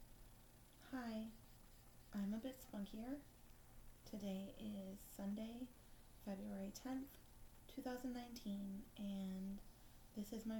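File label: Female Verification Request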